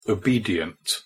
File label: "obedient", pronunciation en